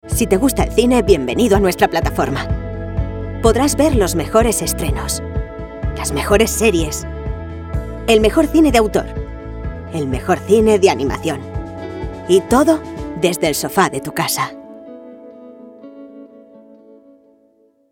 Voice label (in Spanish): promo spot